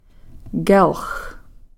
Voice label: "kelx", Cow Creek; chest